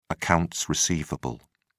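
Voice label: "accounts receivable", British English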